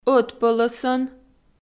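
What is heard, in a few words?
"ӧтпӧлӧсӧн" Komi, female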